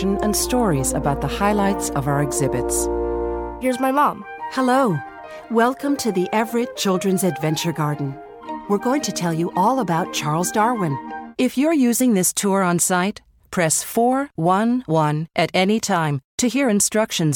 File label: Museum Audio Tours